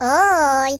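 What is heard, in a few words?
"Whoopsee", British; hedgewars; Default uk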